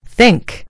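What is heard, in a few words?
"think", British English; gcompris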